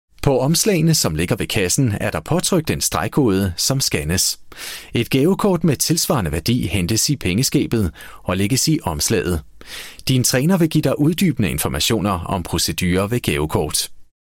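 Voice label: Danish, E-Learning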